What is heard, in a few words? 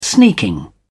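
"sneaking", British English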